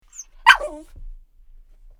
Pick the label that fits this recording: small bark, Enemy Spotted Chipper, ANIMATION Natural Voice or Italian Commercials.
small bark